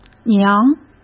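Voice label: "娘", Mandarin Chinese; hsk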